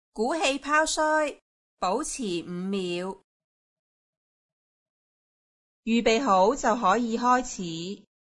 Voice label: instruction oromotor lips; aphasia